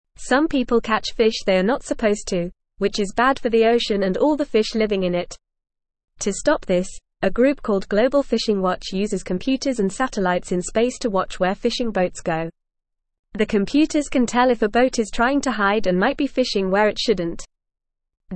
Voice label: English Lower Intermediate FAST Reading; Using Robots and Computers to Save Fish

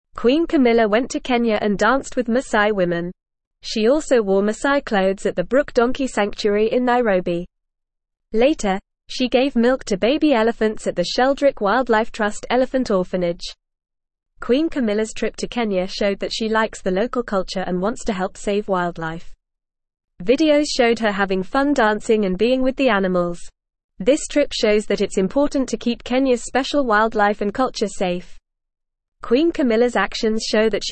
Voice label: English Newsroom Beginner FAST Reading; Queen Camillas Trip to Kenya Saving Wildlife and Sharing

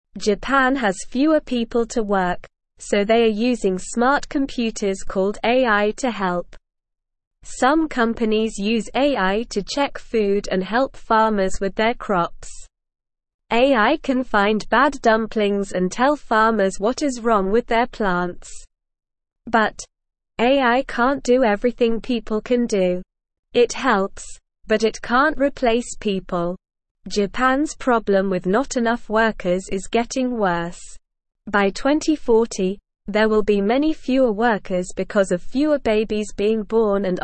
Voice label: English Beginner SLOW Reading; Japan Uses Smart Computers to Help with Work

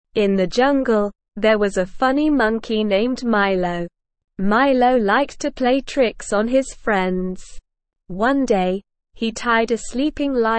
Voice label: ESL Short Stories for Kids Beginner SLOW Reading; The Mischievous Monkey

